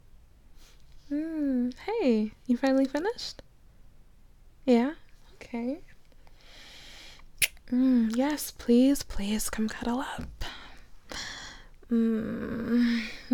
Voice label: F4A F4F F4M, Hands, whispering, caressing, moaning, dirty talk; GFE; praise; passionate; pet names; kissing; begging; sucking noises